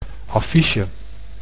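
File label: "affiche", Dutch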